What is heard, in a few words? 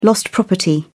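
"lost property", British English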